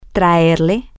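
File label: Spanish, to bring you, female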